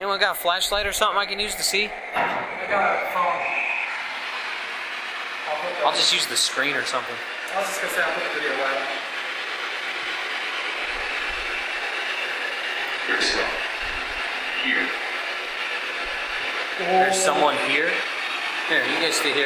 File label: class a voice noise removal